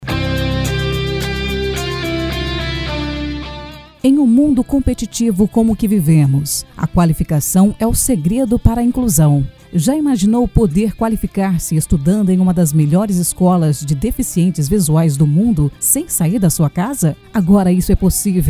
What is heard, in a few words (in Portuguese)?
comercial